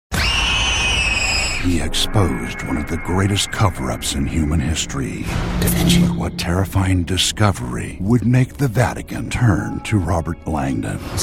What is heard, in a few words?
trailer